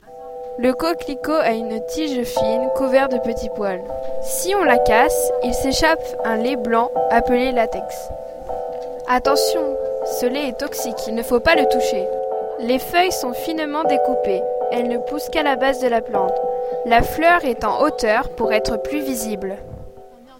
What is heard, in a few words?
texte francais